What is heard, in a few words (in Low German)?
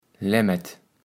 "Lemmet", kaevels platt